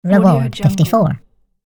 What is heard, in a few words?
cartoon voice says reward